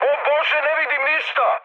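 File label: Serbian searchlite mood high; War Thunder; speech srb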